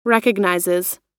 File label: "recognizes", US